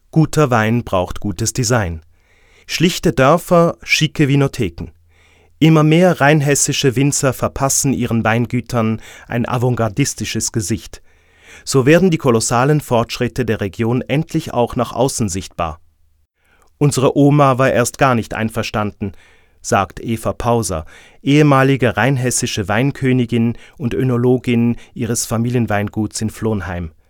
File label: reportage